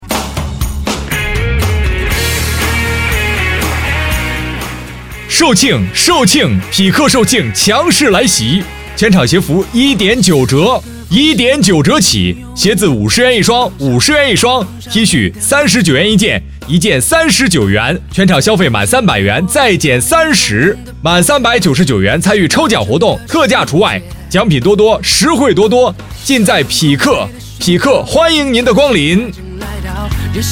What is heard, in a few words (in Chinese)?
配音师男国语, 庄重大气 激情活力, 广告; 鞋服促销